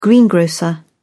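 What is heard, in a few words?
"greengrocer", British English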